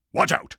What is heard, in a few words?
watch out, combine soldier; hl2